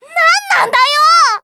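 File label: story, Japanese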